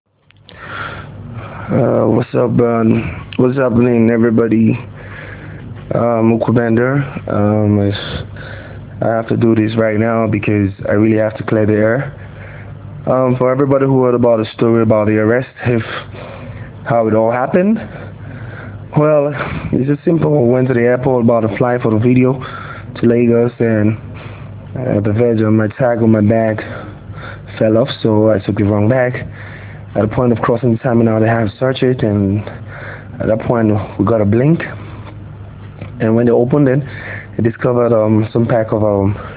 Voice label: Voice Note